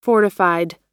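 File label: "fortified", American English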